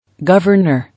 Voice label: "Governor", English